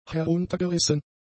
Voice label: "heruntergerissen", German; adjectives